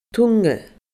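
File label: "Tunga", Scottish Gaelic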